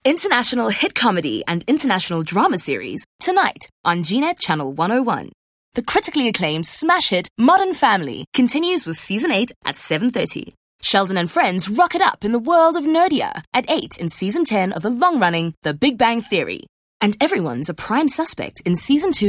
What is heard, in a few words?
English Skill Promo